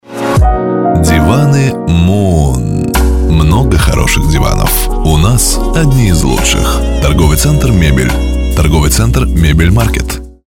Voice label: 电视广告; Диваны мун Ажуров

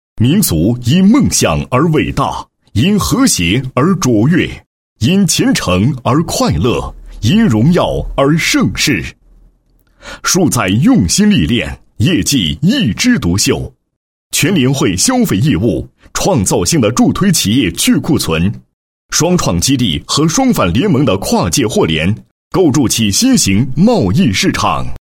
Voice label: 男, 企业专题; 金宝融

